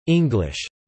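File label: "English" spoken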